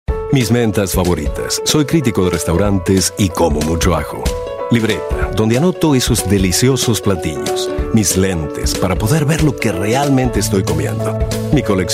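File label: Latin American Spanish, commercial; GoLocalise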